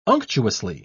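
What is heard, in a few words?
"unctuously", British English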